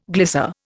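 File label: "glissade", Indian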